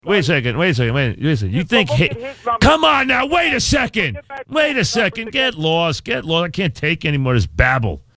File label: babble; WFAN